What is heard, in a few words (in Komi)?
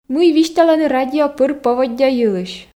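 мый висьталӧны радио пыр поводдя йылысь, Komi, female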